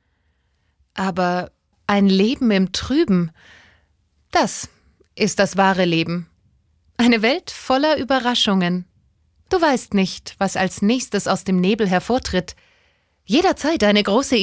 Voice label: Klarheit Energisch

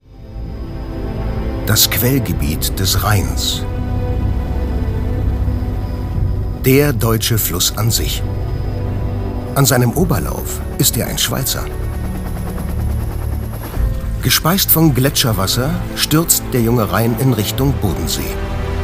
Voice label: Kommentar Sanft

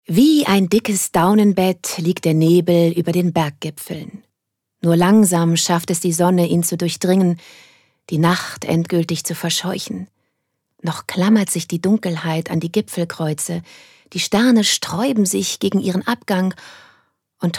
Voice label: Schauspiel Variabel

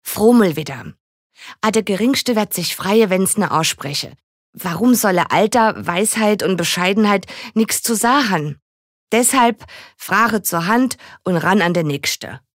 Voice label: Dialekt, Pfaelzisch

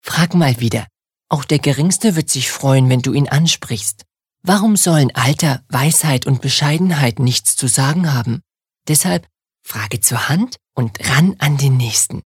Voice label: Werbung Sanft

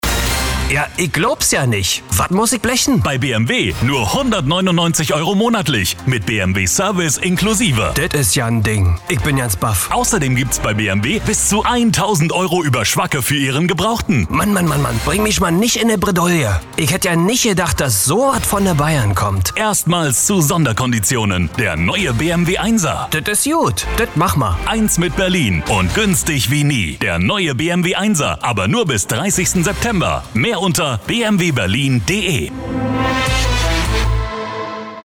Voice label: Dialekt Berlinerisch; Fremdtake

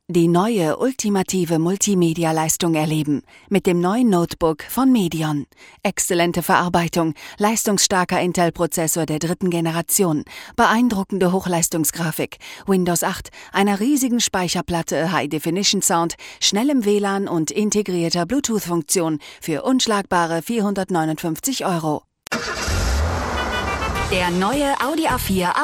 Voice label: Werbung Dynamisch

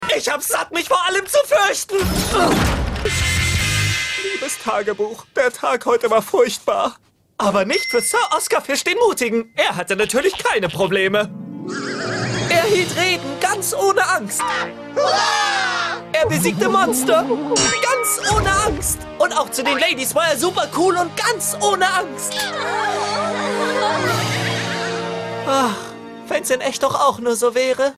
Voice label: Charge Dynamisch

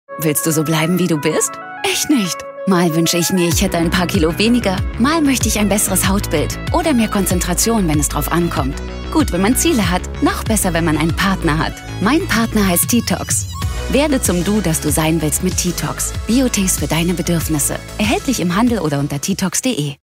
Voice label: Lebendig

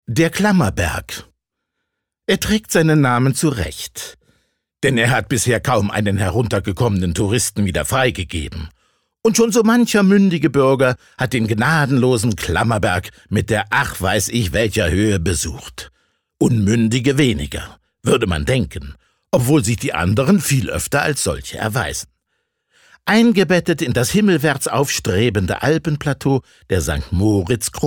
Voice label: Kommentar, Dynamisch

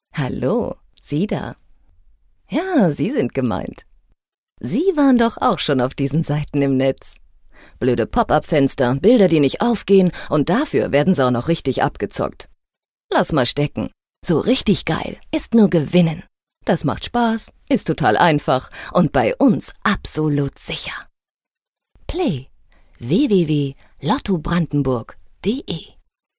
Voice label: Werbung Dynamisch

